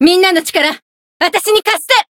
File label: variable chant